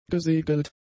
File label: "gesegelt", German; adjectives